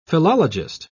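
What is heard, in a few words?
"philologist", British English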